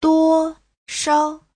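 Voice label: "duōshao", Chinese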